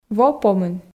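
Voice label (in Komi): "во помын", Komi, female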